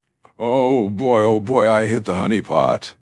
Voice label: happy; fertig classic games